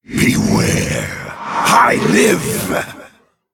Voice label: Male Play; Reliquary Of Souls